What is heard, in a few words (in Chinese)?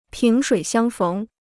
"萍水相逢", Chinese